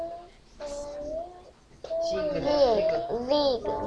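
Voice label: "jig", spoken word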